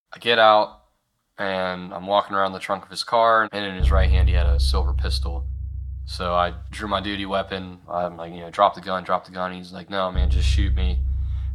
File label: Trailer broadcast; 2018 Dispatch